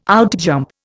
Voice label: "outjump", Indian

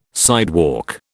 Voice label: "sidewalk", British

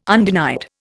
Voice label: "undenied", Indian

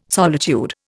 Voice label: "solitude", Indian

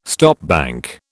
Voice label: "stopbank", British

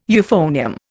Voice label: "euphonium", Indian

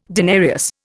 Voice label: "denarius", Indian

